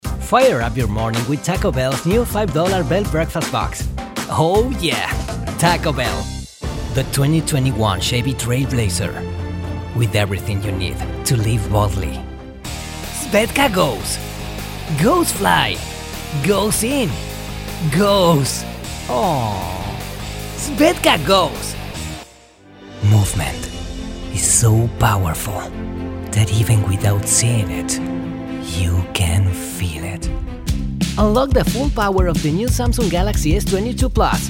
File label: english, english male voices spanish accent